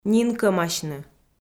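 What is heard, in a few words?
"нинкӧмасьны", Komi, female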